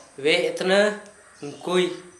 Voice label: broken pot, Gta, Dialect: Hill; household

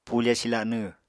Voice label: "pufe silanw", Apatani

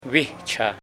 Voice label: Nasa yuwe; bird; pajaro; paez

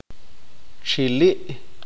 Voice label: "grillo", Q'eqchi'

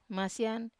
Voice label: "masian", Wamesa

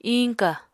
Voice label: "íñka", Apatani

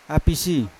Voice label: "apisi" pumpkin, Yawa

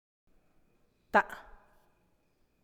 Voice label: arriba de, Santiago Matatlán Zapotec; above

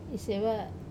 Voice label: "seva", open, wamesa

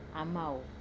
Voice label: "amau", Wamesa

